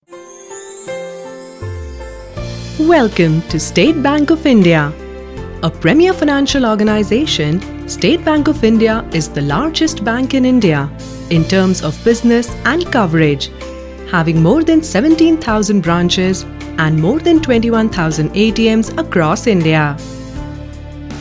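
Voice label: English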